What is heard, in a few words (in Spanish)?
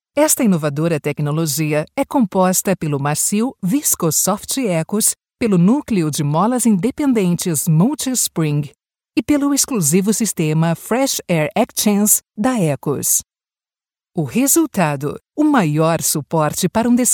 BRASIL Femenino